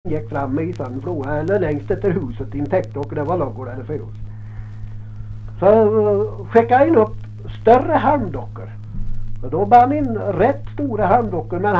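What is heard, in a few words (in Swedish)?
Lerdal Dalsland, dialekter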